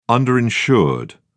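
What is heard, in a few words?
"underinsured", English, British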